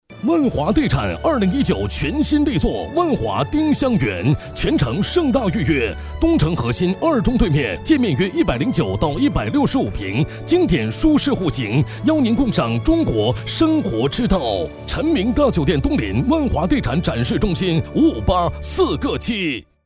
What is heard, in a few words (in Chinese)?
男 广告 地产; 万华地产